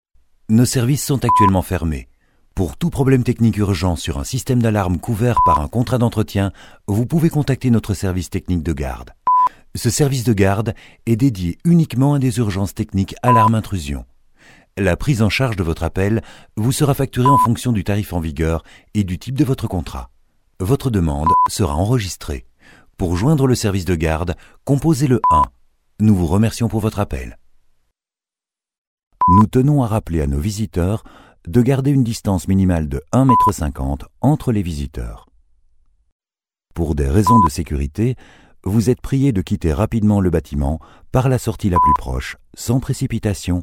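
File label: French, Messaging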